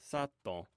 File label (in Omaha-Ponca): "saton", Omaha language